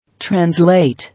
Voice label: "Translate", English